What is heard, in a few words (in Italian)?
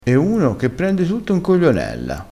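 "coglionella", dialettale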